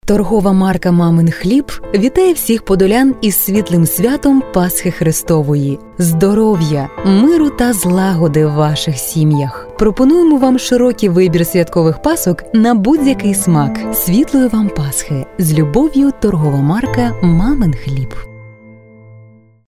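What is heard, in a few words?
ukrainian female; dictor-sounds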